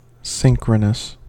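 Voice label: "synchronous", American English; pronunciation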